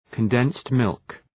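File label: "condensed milk", English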